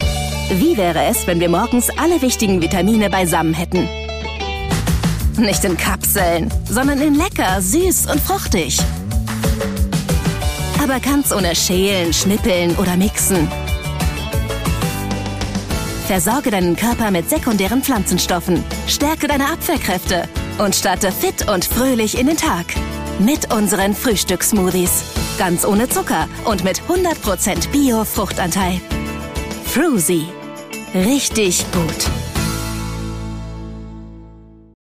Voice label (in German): TV Werbung Smoothie frisch offensiv